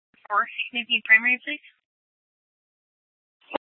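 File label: Scottsdale Police South Dispatch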